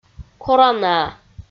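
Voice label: "коранаа" Komi, female; audio dict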